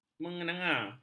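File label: "menganga", Malay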